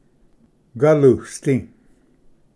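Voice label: "galusdi", Cherokee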